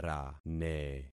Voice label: "rane", concat syllables; Affixing experiment